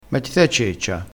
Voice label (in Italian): "ceccia", crespinese